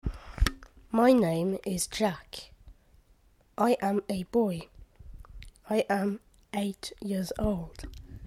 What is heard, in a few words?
Full text boy